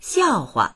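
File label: "xiao4hua", Mandarin Chinese; 笑话; 笑い話; dictionary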